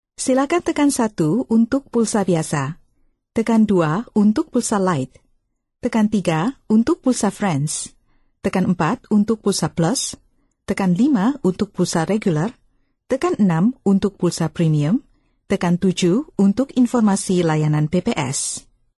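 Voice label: Indonesian, female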